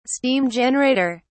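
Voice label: "steam generator", British English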